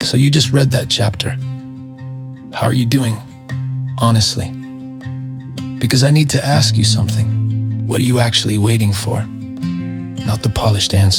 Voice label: Male; chapter 2 audio